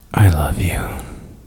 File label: Male Voice I Love You